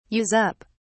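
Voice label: "use up", British English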